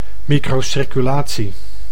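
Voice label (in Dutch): "microcirculatie", Dutch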